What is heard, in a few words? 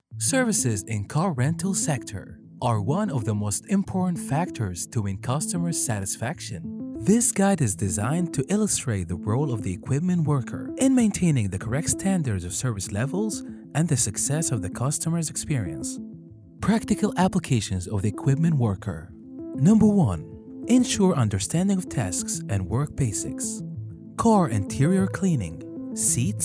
voiceover